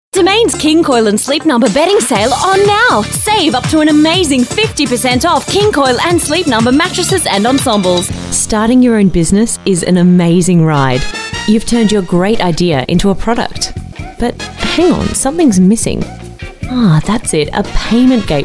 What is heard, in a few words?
female talent retail